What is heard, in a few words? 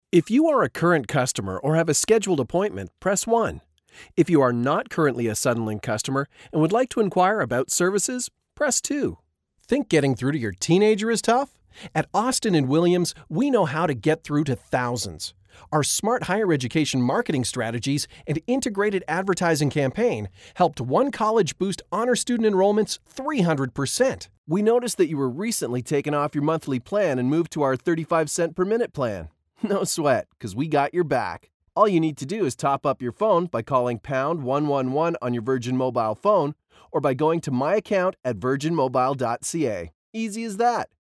American Male